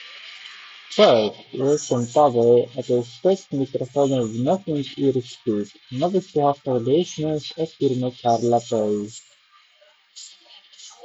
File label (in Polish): test mikrofonow tlo; nothing ear stick